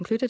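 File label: "incluDed", West Country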